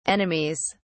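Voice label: "enemies", British English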